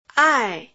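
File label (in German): Phoneme, chinesisch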